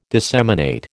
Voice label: "disseminate", American